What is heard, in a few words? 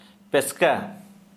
Santali testicles